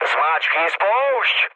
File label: attack position, speech cze, mood low